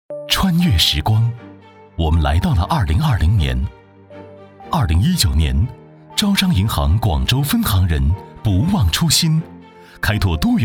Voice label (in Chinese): 男 企业专题 银行广州支行; 银行宣传样音; 招商银行广州支行